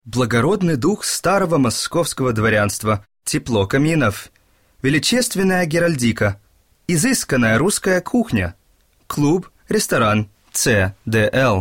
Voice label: Russ Spot